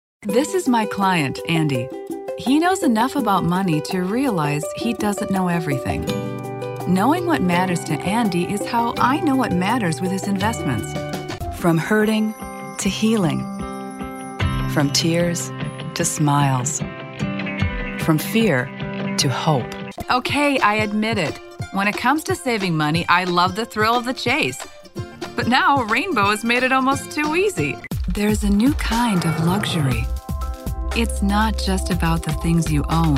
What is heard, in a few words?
Commercial